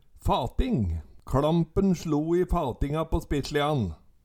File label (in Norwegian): fating, Numedalsmål